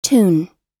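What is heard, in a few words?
"tune", English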